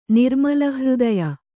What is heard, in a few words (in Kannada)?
"ನಿರ್ಮಲಹೃದಯ", Kannada